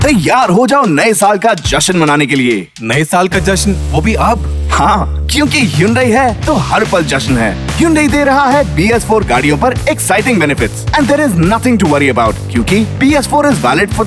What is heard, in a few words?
hindi